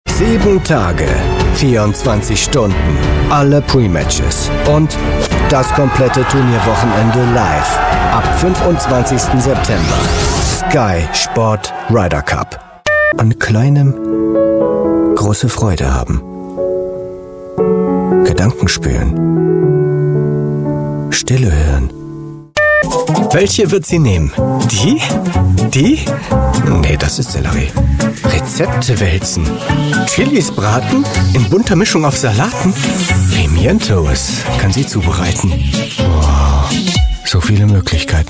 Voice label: german voice over male commercial

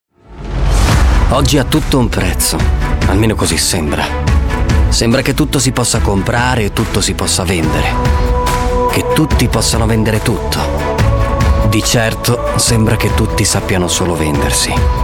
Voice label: italian voice over male commercial

